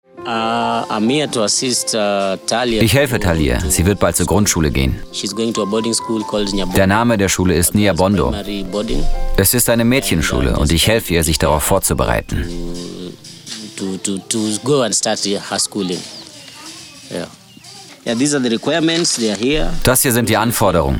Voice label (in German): Neutral